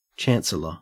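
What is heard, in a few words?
"chancellor", Australian English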